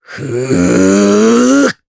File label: Casting, jp; Riheet; kingsraid; voices heroes